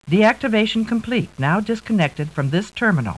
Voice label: Computer voice saying "deactivation complete / now disconnected from this terminal"